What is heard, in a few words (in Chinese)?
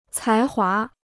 "才华", Chinese